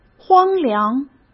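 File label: "荒凉", Mandarin Chinese; chinese-flashcards; hsk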